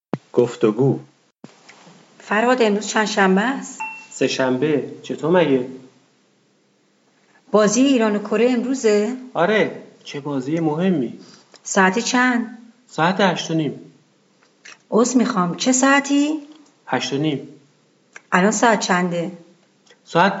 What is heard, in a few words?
Dialog, persian